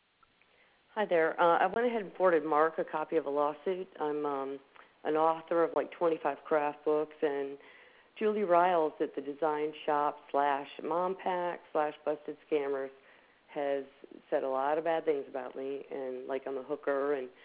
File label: voice message